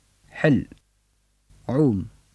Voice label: tunesisk, tunesisk arabisk [ħel ʕu:m]; [ʜel ʢu:m]

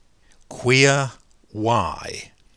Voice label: engelsk queer why; [kʰwɪɐ̯ waɪ̯]

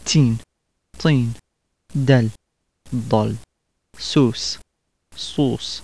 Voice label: arabisk; [tin tˤɨn dɛl dˤɑl su:s sˤo:sˤ]

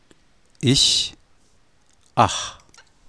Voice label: tysk ich ach, tysk [ɪç ɑx]

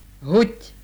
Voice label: tjekkisk stemt h, tjekkisk [ˈɦutʲ]

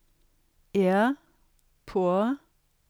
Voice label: engelsk ear poor; [ɪɐ̯ pʰʊɐ̯]

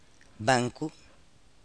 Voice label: portugisisk banco; [ˈbãŋkʊ]

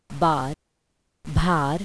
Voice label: gujarati; [bɑ:ɾ bɑ̤:ɾ]

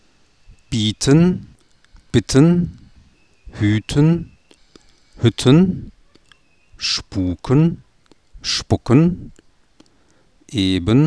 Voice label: tysk bieten bitten; hüten, Hütten; spuken, spucken; eben, ebben; Höhle, Hölle; Ofen, offen; baken, backen

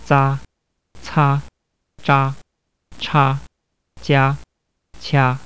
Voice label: kinesisk, kinesisk [t͡sá t͡sʰá t͡s̠̻á t͡s̠̻ʰá t͡ɕá t͡ɕʰá]

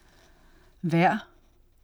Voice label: "vaer" "vaerd"; vejr, hver; [væɐ̯ʔ]

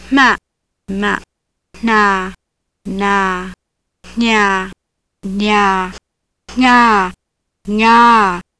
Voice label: burmesisk; [m̥â mâ n̥ǎ nǎ ɲ̥ǎ ɲǎ ŋ̥â ŋâ]

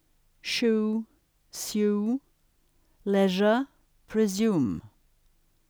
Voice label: engelsk shoe sue, engelsk [ʃu: sju: ˈlɛʒə pʰɹ̥ɪˈzju:m]

